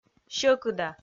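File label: "сьӧкыда", Komi, female; kpv audio dict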